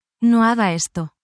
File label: spanish, spanish phrases